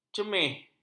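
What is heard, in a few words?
"cemeh", Malay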